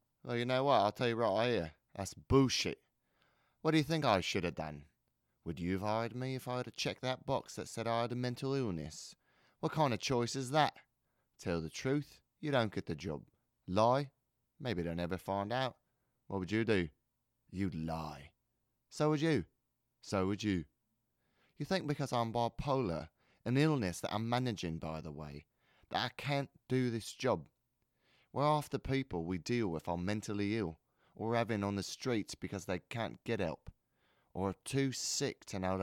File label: Supplement (British)